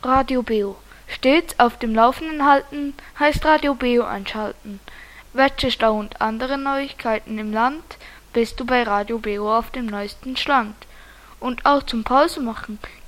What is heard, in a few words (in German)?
Werbung; Radio BeO - Das Regionalradio im Berner Oberland; Unterseen